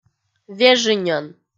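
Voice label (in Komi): "вежыньӧн", Komi, female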